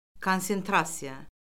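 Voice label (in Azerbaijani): "konsentrasiya", Azerbaijani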